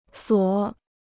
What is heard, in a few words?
"锁", Chinese